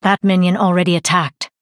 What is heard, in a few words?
Female High Elf ERROR MINION ATTACKED